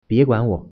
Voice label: leave me alone, mandarin

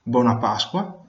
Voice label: "easter", Venetian

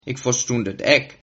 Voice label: no understand, Sylt Frisian

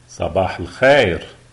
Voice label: good morning, lebanese

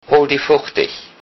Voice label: good health, low saxon

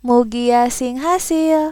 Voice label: good luck, sundanese

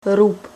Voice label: rub, czech

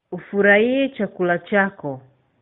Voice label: bon appetit swahili

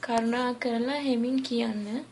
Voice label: slowly, sinhala

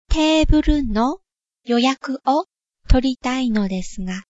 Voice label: reserve table, japanese

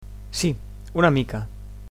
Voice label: "a little", Catalan

